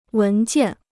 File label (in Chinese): "文件", Chinese